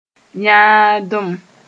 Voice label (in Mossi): "yãadem", moore